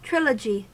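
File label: "trilogy", American English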